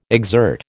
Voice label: "exert", American